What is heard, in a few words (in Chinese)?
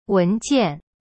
"文件" Chinese; wen jian